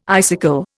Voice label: "icicle", Indian